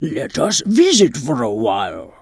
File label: Tuskarr Female Greeting; Magic User